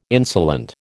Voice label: "insolent", American